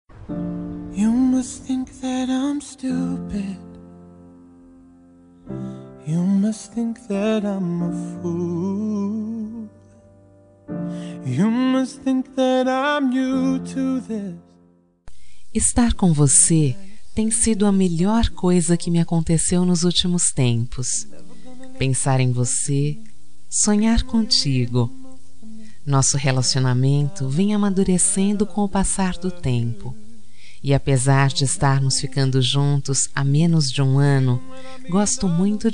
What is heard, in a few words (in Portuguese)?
dia dos namorados especial, voz feminina; pessoa especial